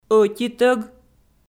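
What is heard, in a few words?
"ӧтитӧг" Komi, female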